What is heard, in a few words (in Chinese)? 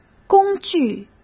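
"工具", Mandarin Chinese; hsk; chinese-flashcards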